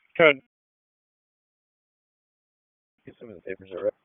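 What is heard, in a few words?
Police Southwest District Dispatch, p25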